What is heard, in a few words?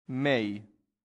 "mei", Latin; santillana